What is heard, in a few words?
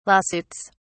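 "lawsuits", British English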